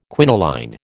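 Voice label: "quinoline", American English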